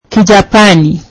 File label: Japanese; swahili